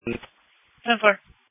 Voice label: Police Tactical Encrypted; sdr